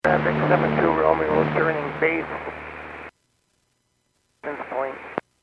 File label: Airports 122.7, Radio; KSTE